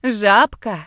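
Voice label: frog, Ukrainian; gcompris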